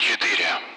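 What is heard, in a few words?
Speech Sound Russian Common Allied Flight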